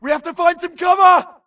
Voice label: grunt flee Player Titan Group Call; imc; Titanfall 2